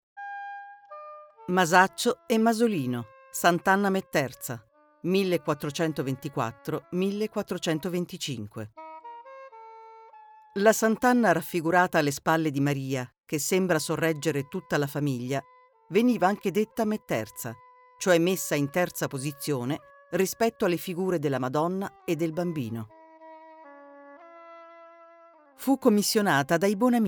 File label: Audioguide; Uffizi